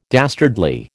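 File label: "dastardly", American English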